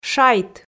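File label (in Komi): "шайт", Komi, female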